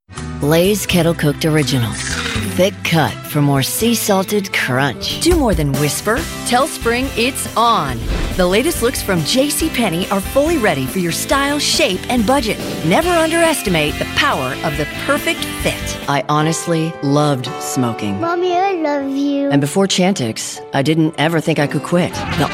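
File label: female, US English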